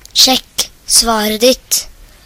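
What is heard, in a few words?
check answer, Chinese; gcompris-data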